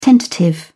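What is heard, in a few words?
"tentative", British English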